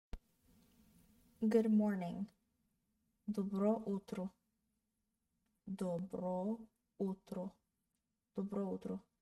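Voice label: Good morning, Bulgarian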